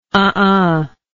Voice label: "uhuh", English